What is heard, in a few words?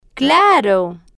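"claro", slow, spanish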